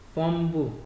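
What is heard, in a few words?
"fumble", Nigerian Pidgin, male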